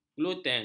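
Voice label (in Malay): "gluten", Malay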